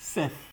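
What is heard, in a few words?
"sef", Nigerian Pidgin, male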